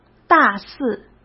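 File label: "大肆" Mandarin Chinese; chinese-flashcards; hsk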